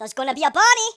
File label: "i'll get you", Geordie; speech